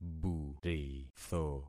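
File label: "buritho", concatenated syllables; Affixing experiment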